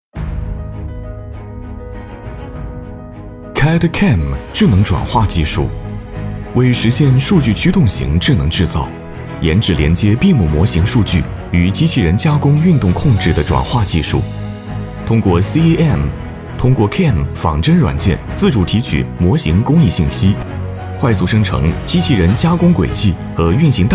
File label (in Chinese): 男 专题宣传 科技自然解说